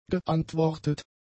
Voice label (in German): "geantwortet", German; adjectives